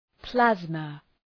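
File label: "plasma", English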